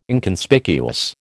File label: "Inconspicuous", American